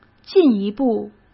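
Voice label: "进一步", Mandarin Chinese; chinese flashcards; hsk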